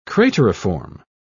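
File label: "crateriform", English, British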